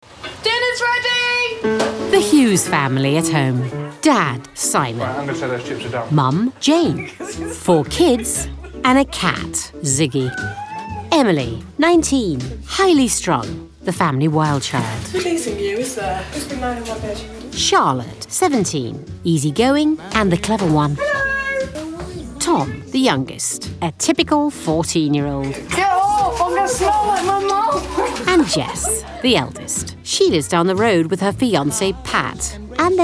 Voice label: narrative